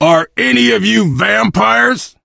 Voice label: initial meeting, survivor voice biker